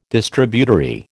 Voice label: "Distributary", American